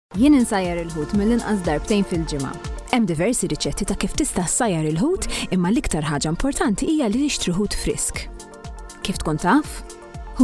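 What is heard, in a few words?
Maltese, female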